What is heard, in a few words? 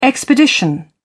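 "expedition", British English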